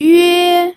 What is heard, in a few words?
"yue1", pinyin